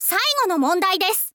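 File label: "saigo no mondai desu", Japanese, girl; voice; info girl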